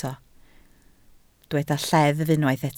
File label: "lleddf", Welsh